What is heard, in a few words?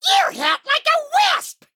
Male Demon Attack